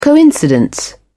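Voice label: "coincidence", British English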